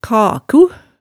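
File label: "kaku", Hemsedal dialect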